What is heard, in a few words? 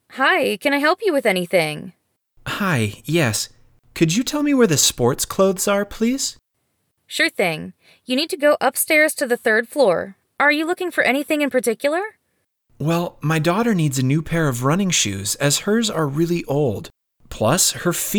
conversation; Rocket Up 1